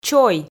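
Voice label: "чой", Komi, female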